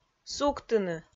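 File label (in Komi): "суктыны", Komi, female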